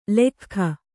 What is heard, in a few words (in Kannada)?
"ಲಖ್ಖ", Kannada